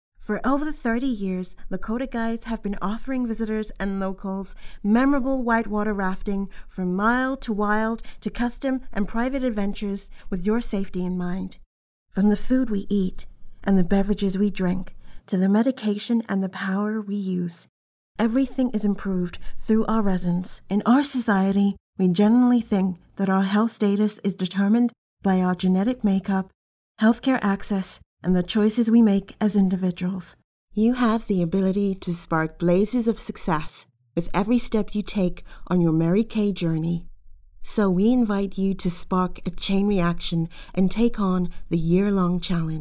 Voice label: english us and canada female commercial